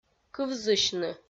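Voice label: "кывзысьны", Komi, female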